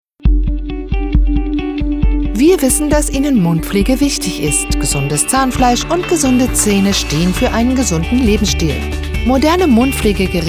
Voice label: german; DiamondClean